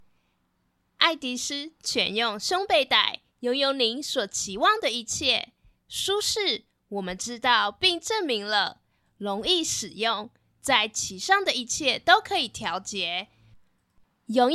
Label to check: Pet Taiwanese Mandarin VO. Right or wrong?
Right